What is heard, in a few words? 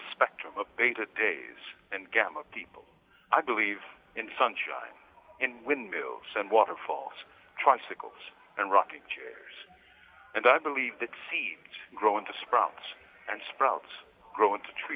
Affirmation read